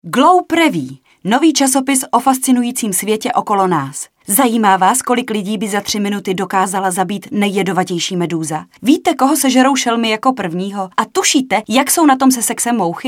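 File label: female Czech commercial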